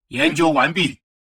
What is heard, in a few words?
advisor notification research complete, chinese; Stellaris